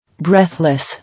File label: "Breathless", English